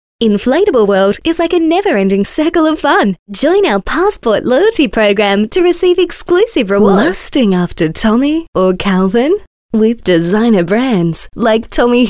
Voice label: Soft Sell, voice overs

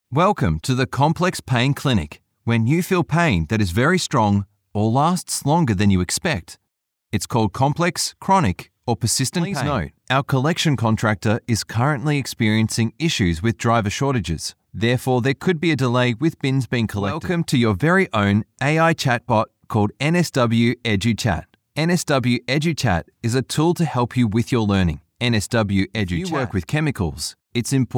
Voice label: Natural, voice-overs

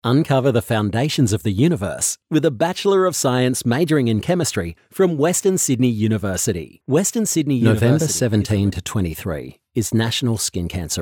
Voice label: Soft Sell

